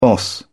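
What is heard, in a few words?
"boss", British English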